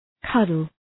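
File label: "cuddle", English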